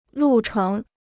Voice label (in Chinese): "路程", Chinese